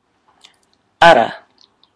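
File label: "ara", Maori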